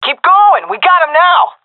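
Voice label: round winning, female; Counter Strike Model Pack; CS:GO; seal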